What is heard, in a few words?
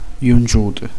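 "uniti", Italian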